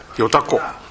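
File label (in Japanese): "yotako", 南山形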